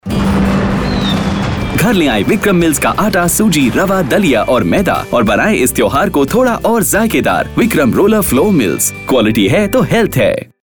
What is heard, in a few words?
Radio Spot; VRFM